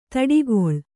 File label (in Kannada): "ದಾಟುಗಾಲು", kannada